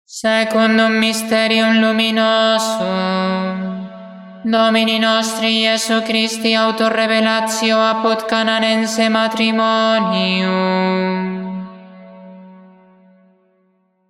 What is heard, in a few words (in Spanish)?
Anuncio del segundo misterio luminoso; santo rosario